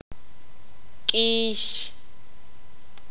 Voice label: sakapulteko; espina

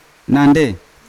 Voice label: "nandei", Yawa; forehead; unat

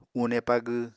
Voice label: "une pagw", Apatani

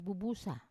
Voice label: "bubusa", lungs, Wamesa

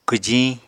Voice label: "kwjiñ", Apatani

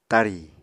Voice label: "tarì", apatani

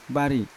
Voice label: "bari" flower, Yawa

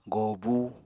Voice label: "gòobu", Apatani

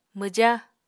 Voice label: "mwja", Apatani

